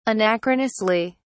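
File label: "anachronously", British English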